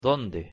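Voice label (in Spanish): "donde", Spanish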